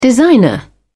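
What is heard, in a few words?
"designer", British English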